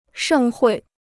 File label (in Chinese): "盛会", Chinese